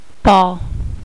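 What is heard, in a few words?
"ball", female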